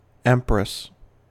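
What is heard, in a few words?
"empress", US English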